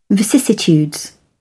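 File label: "vicissitudes", British English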